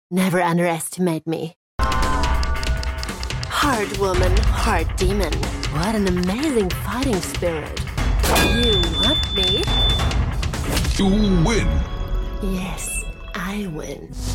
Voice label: english video games